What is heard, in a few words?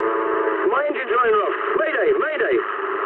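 English damaged engine mood medium; War Thunder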